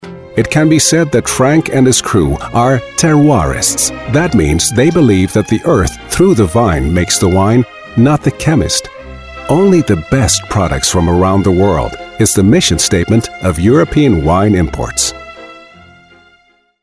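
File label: English playful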